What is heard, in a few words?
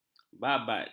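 "babad", Malay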